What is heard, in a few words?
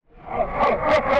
evil dark horror male man deep